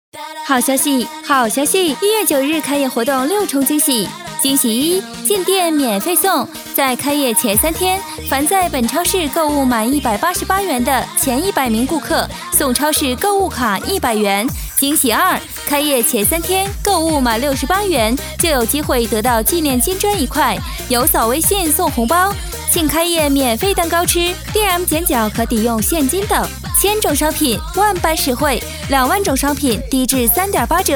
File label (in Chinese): 女 促销 活力激情